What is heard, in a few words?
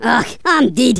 "Bye bye", Angry Scots; Worms Armageddon